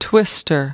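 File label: "twister", US English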